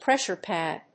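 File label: "PRESSURE PAD", English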